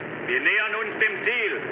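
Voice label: German near waypoint mood med, speech; War Thunder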